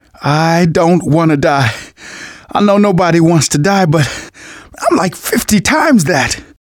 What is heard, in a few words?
going to die light, manager, survivor, voice; Left 4 Dead 2; left4dead2 dlc2